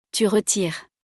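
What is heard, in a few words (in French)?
"tu retires", French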